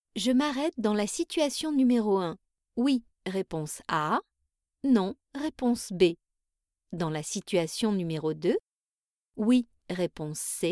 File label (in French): EDUCATIF POSE, E-LEARNING; CODECLIC